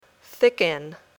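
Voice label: "thicken", English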